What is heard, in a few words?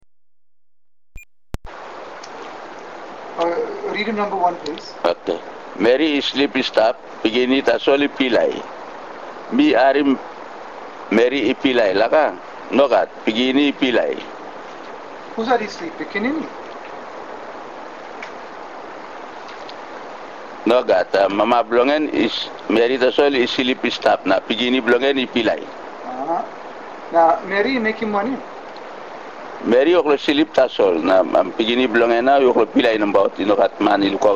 Tok Pisin; TokPisinTexte